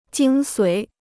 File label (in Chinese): "精髓", Chinese